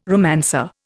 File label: "romancer", Indian